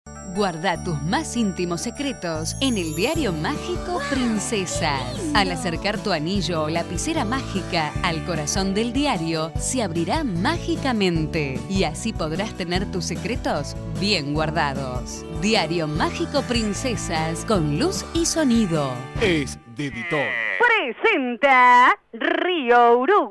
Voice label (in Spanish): Locutora Argentina